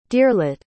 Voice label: "deerlet", pronounce